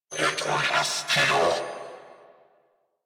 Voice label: Attack Grineer Male; New War; Narmer

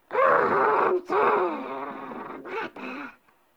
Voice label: Sprout Vocal Grumpy; Characters; Friendly; Sproutfolk; Fey Hub Sprout

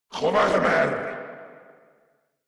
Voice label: Cover Me Grineer Male

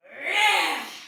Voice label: Attack Heavy Soldier Female, Battle Barks; Dialog; Sinecure

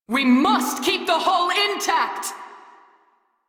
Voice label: Crew Ship Low, Female; Dialog; en

